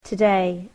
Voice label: "today", English

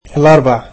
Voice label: "Wednesday", egyptian

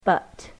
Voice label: "but", english

